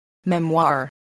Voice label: "memoir", voice, spelling